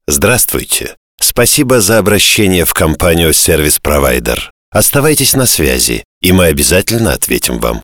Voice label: M IVR